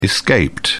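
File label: "escaped", British English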